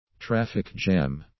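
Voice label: "traffic jam", English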